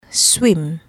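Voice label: "swim", English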